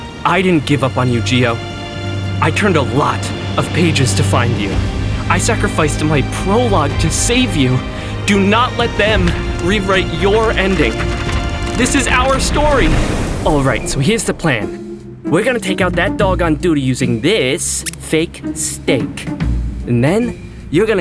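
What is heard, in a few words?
animation men, voices men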